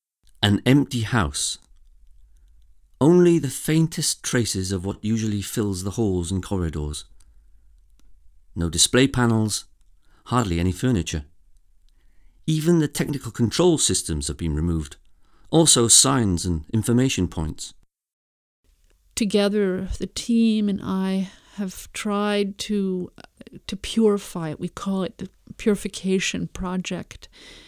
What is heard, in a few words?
audioguide between walls en